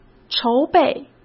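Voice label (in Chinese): "筹备", Mandarin Chinese; hsk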